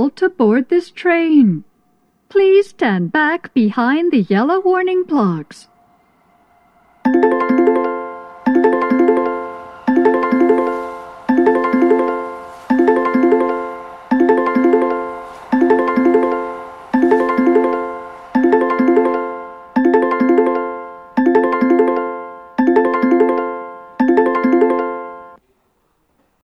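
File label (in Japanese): approach announcement pass, 接近放送 女声 通過電車; 放送は「通過電車」です。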